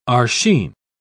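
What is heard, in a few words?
"arshin", British English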